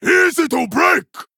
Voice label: Male Troll Attack